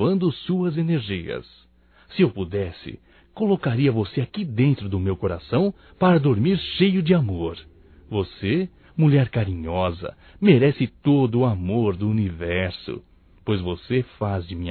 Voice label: BOA NOITE ROMâNTICO(M); Bom dia, tarde e noite; Telemensagens 2017

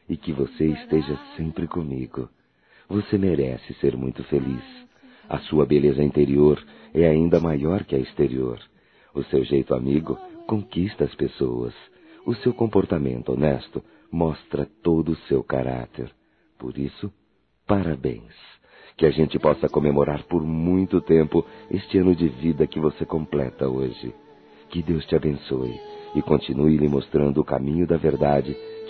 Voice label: Aniversário Romântico Masculino

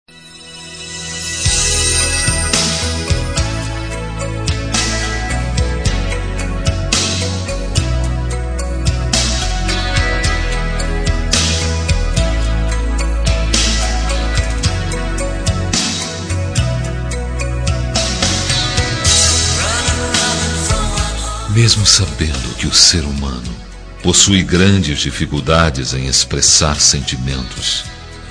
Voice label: ROM RECONCILIACAO DE NAMORO MASC; Telemensagens

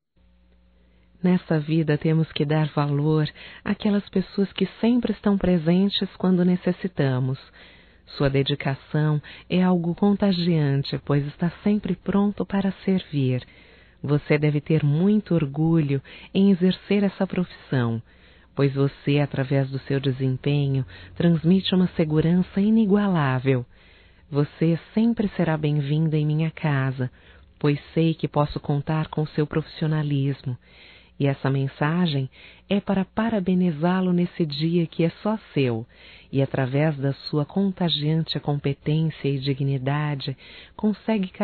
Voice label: Dia Eletricista Feminino, Só Voz; Telemensagens; DATAS COMEMORATIVAS

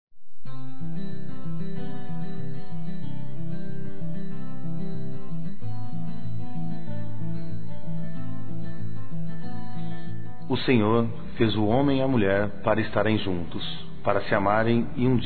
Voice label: Evangélico Masculino, Telemensagens Dia Dos namorados; Telemensagens 2017

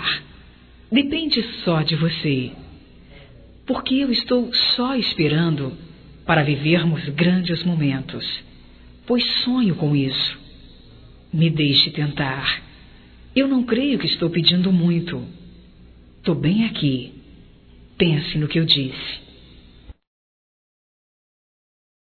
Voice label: CONQUISTA DIRETA, Românticas fem

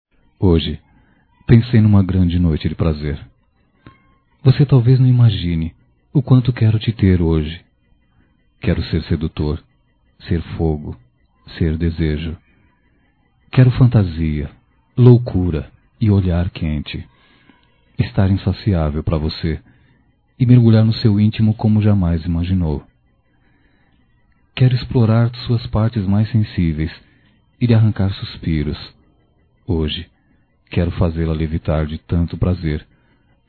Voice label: Erótica Masculino

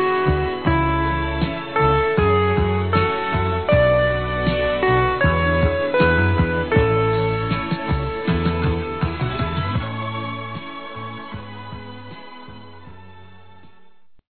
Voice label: SE VOCÊ ESTA TRISTE M, Telemensagens Gospel masc

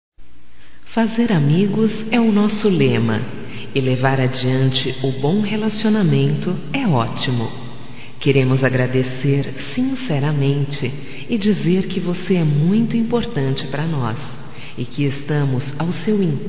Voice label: Obrigado Pela Preferencia Feminino, Telemensagens, Só Voz; Telemensagens 2017

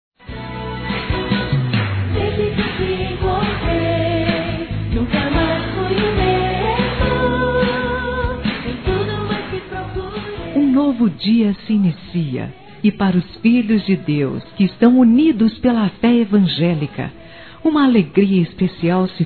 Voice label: ANIV GERAL (F), Telemensagens Aniversários Evangelico Fem

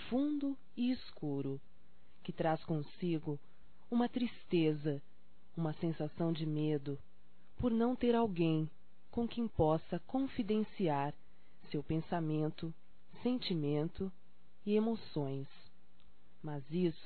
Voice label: Solidão Feminino; Românticas

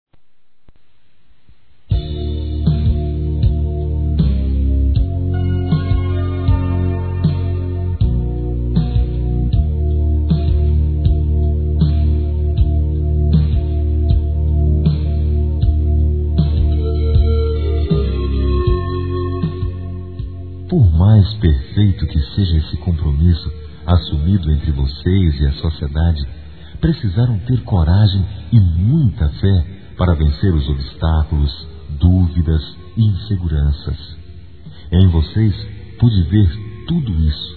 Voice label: Parabéns Pelo Matrimônio, Telemensagens; Parabenização

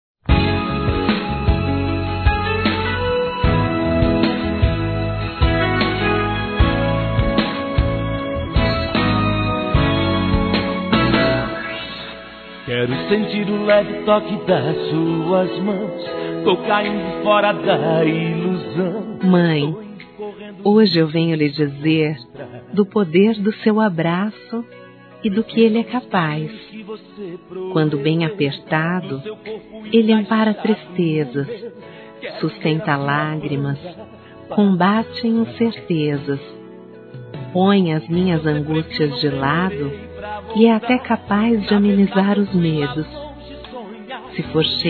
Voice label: MÃE F, Telemensagens Dia das Mães Fem; Telemensagens 2017

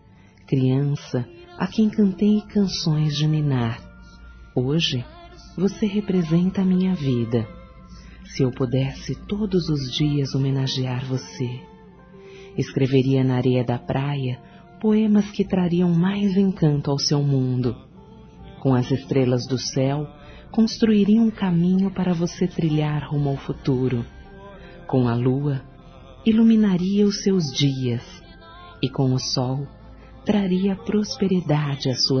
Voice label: homenagem a filha F, telemensagens; fem

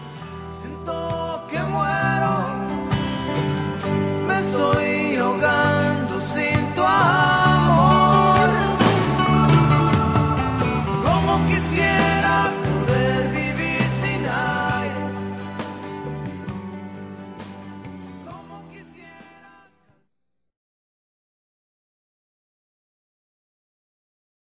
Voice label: NATAL ROMANTICO, masc

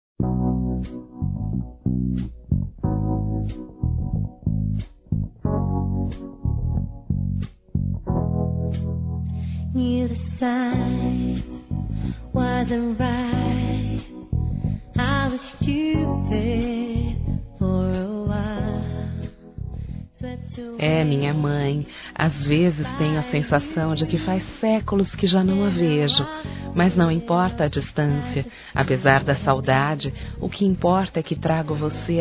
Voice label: Aniversário Mãe Distante Feminino; Aniversários; Aniv Familiar

